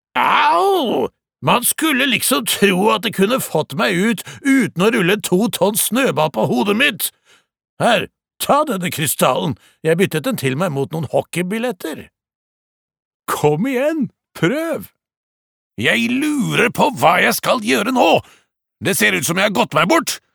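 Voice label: Norwegian dub pirate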